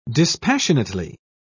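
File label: "dispassionately", English, British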